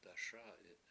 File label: "daSA7i7" color, Washo